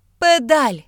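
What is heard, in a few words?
pedal, Ukrainian; gcompris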